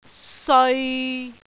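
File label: "seoi6", Cantonese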